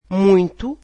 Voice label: "very", Portuguese

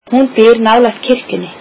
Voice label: icelandic she lives near the church

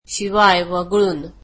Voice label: marathi without

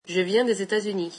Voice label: french I'm from the US

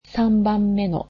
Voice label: "third", japanese

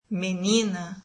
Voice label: "girl", brazilian

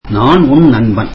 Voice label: tamil I am your friend

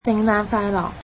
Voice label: cantonese Merry Christmas

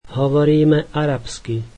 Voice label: we speak Arabic, slovak

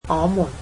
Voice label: "us", Cantonese

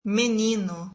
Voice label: "boy", brazilian

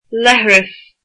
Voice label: toilet, irish

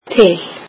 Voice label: icelandic to

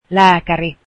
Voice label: doctor, Finnish

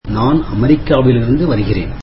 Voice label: tamil Im from the US

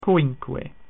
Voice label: "five", Latin

